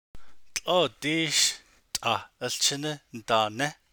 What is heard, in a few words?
navajo imperative; Are the children still playing outside